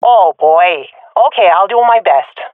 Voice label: last unit alive, swat fem; vo; agents; Source Model Packs; Counter Strike Model Pack; CS2